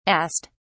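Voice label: "ast", British English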